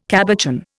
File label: "cabochon", Indian